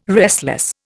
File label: "restless", Indian